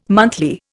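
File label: "monthly", Indian English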